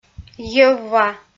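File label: "йӧвва", Komi, female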